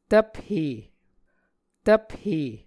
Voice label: "tvphe", Muscogee